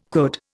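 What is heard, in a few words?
"could", Indian English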